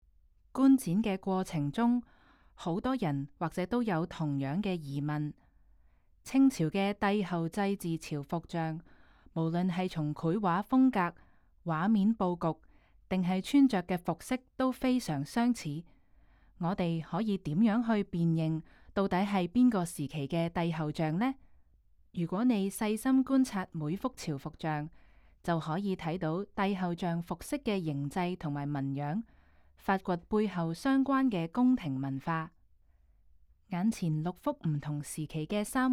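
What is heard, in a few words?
Cantonese, audio guide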